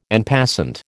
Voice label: "en passant", American

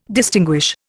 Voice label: "distinguish", Indian

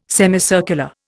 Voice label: "semicircular", Indian

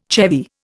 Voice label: "chevy", Indian

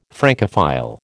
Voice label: "Francophile", American

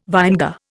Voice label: "vinegar", Indian

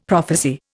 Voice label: "prophecy", Indian; Dictionary

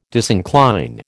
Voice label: "disincline", American

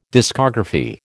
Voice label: "discography", American

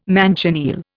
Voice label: "manchineel", Indian

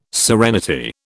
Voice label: "serenity", British

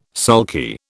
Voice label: "sulky", British

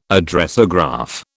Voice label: "Addressograph", British

